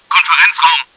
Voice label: meeting room, german; csco; csgo; bot